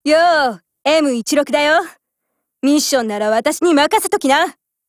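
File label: GAIN Japanese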